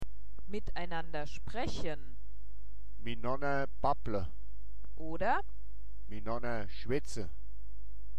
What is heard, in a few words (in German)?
sprechen miteinander, Hochdeutsch